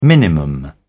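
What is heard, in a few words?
"minimum", British English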